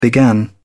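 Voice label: "began", en, uk British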